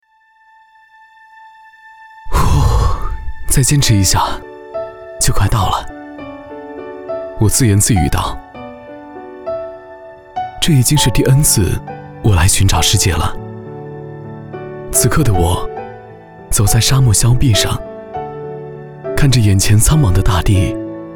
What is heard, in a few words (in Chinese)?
内心独白温情